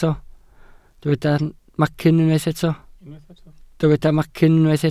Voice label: "macyn", welsh